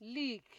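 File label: "leave", Nigerian Pidgin, female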